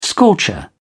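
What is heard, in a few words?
"scorcher", British English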